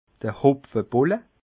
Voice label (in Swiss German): "hopfebolle", alsace